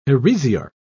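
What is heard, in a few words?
"heresiarch", British English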